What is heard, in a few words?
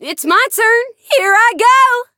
chant; may